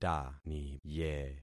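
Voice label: "taniye", concatenated syllables; Affixing experiment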